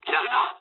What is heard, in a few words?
jp trick, speech jp